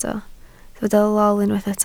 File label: "lol", Welsh